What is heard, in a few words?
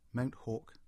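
"Mount Hawke" English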